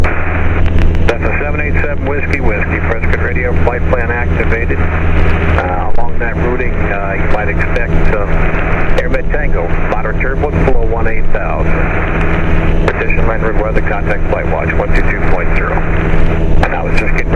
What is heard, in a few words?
radio; arizona 2013; prescot radio